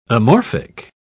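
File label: "amorphic", English, British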